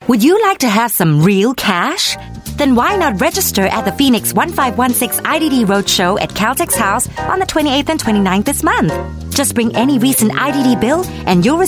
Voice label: Asian English